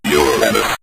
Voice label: "uranus", synth voice; Kenney's Sound Pack

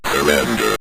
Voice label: "surrender", synth voice; Kenney's Sound Pack

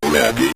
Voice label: "ready", Synth Voice; Kenney's Sound Pack

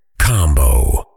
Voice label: "combo", Voiceover Pack Fighter; Kenney's Sound Pack

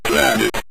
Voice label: "planet", Synth Voice; Kenney's Sound Pack

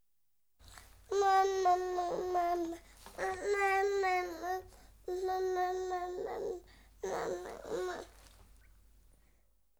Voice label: Month Old Girl, Words--Mumma--, Babies; BBC Sound Effects Library

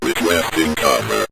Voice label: requesting Cover, Synth Voice; Kenney's Sound Pack

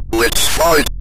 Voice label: lets fight, Synth Voice; Kenney's Sound Pack; Synth Voice 1

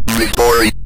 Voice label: "victory", Synth Voice; Kenney's Sound Pack; Synth Voice 1

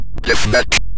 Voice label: "deathmatch", Synth Voice; Kenney's Sound Pack; Synth Voice 1

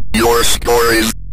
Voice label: your score is, Synth Voice; Kenney's Sound Pack; Synth Voice 1

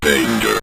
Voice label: "danger", Synth Voice; Kenney's Sound Pack

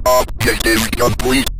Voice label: objective complete, Synth Voice; Kenney's Sound Pack; Synth Voice 1

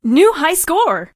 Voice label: "new highscore", female; Kenney's Sound Pack

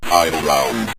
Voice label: final round, synth voice; Kenney's Sound Pack

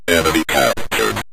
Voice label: enemy Captured, Synth Voice; Kenney's Sound Pack

